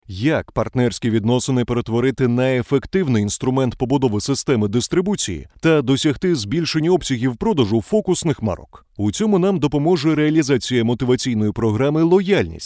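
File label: Ukrainian male voice over, upbeat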